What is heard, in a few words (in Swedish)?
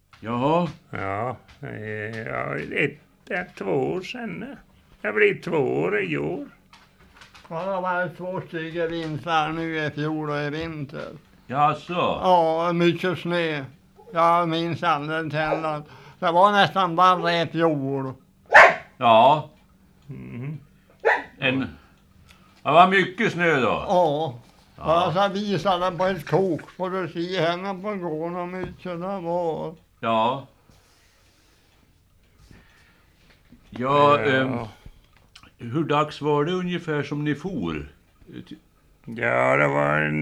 Eskilstuna, dialekter; Svenska sagor; Folkminnen